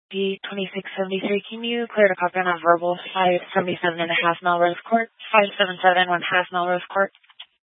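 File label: Sheriff Dispatch, Scanner audio recording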